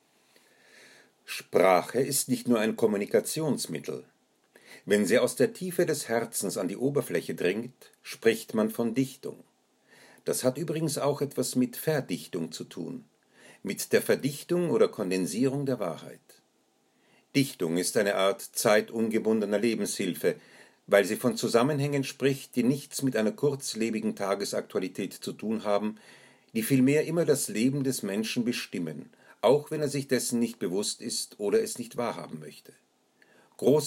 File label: Voicereel deutsch